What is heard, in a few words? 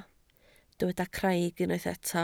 "craig", Welsh